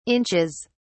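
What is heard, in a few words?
"inches", British English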